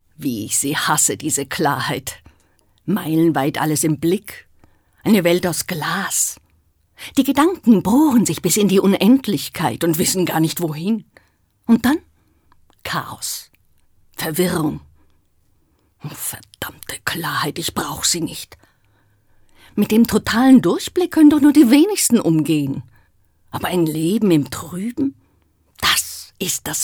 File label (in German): Schauspiel Energisch